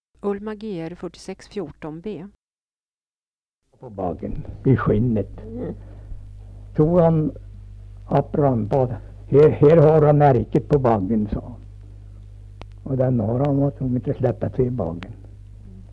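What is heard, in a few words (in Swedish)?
Lerbäck Närke, dialekter; Folkminnen; Svenska sagor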